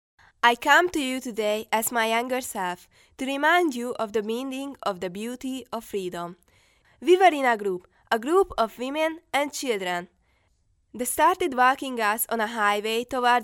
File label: hungarian girl child voiceover english recording locutora infantil hungria